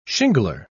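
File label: "shingler", British English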